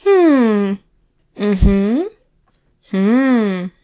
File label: mm hmm female